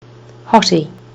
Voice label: "hotty", kiwi english

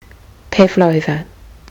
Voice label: "pavlova", kiwi english

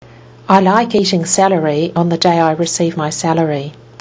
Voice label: i like eating celery on the, kiwi english

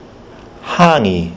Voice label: "hangi", kiwi english

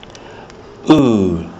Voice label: u as in pukeko, kiwi english

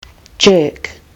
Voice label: "jerk", kiwi english

